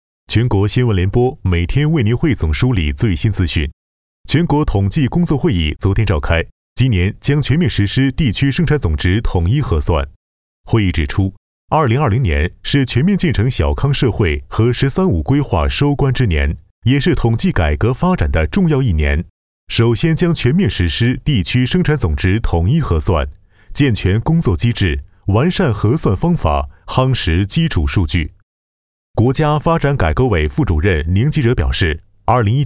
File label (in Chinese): 男 新闻 标准播音男声; 央广新闻